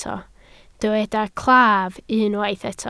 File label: "claf", Welsh